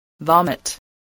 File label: "vomit", spelling